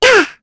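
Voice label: vox Landing, Korean; Mirianne; kingsraid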